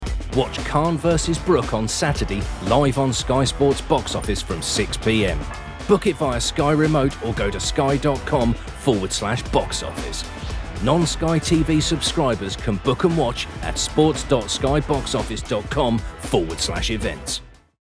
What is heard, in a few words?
Promo